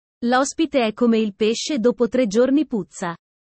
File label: italian sayings about life